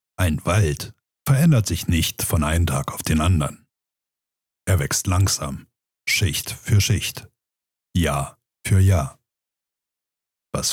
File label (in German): Dokumentation Sprachdatei; Sprecherprofil